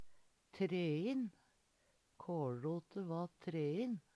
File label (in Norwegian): trein, Numedalsmål; trevlete; Adjektiv